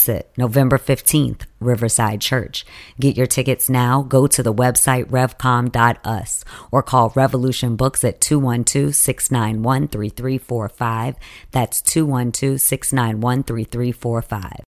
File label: Dialogue No Music